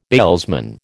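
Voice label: "bailsman", American English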